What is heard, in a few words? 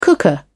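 "cooker", British English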